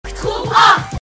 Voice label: "groep 8", dutch

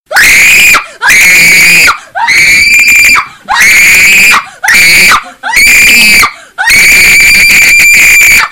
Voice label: shouting wife

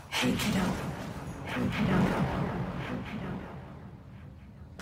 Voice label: Female; Warframe; Kiddo

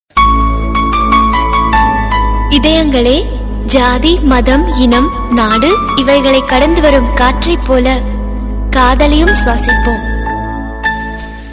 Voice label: tamil love poem

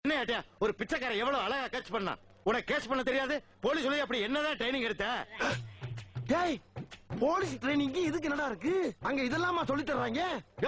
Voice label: begging; vadivelu

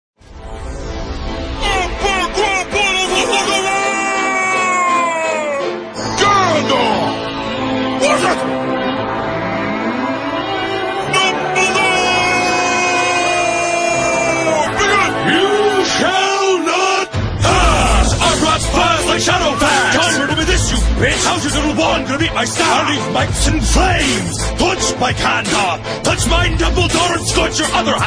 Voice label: rap battle; gandalf vs dumbledore

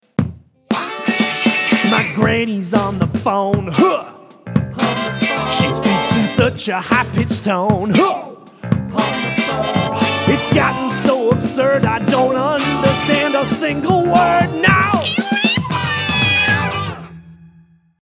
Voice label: Granny Voice

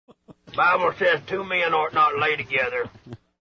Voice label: prank call; sling blade calls a priest to confess a murder

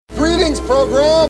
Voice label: computer greetings

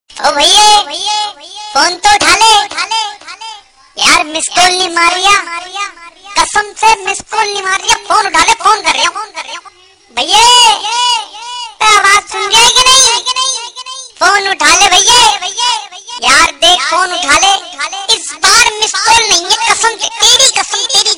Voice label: funny miss call

